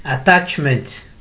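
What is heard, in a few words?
"attachment", Nigerian Pidgin, male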